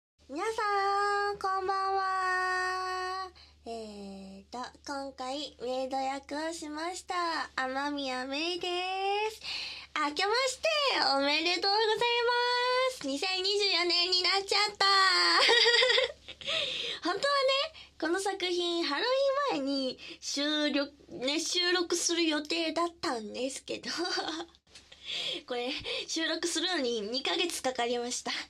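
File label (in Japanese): フリートーク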